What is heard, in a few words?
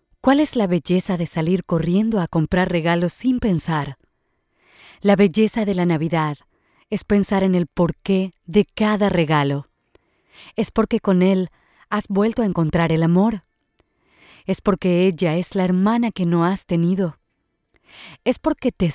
spanish latin american neutral promos